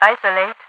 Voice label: isolate, radio voice; Half Life 2